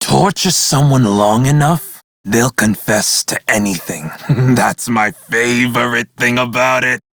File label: crew idle, male; Dialog; en; Warframe; Railjack Crew; Red Veil